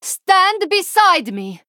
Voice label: Female Draenei TRIGGER CIRCLE OF HEALING